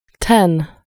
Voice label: "ten", inglese; numeri in inglese